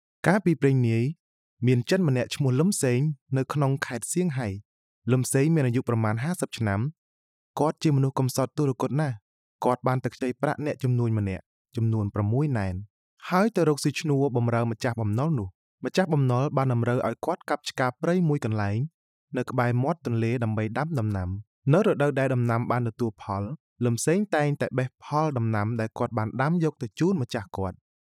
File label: E Learning; voiceover-cambodia